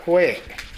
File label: "kowae", minamiyamagata; 疲れる。体調不良。疲れて苦しい。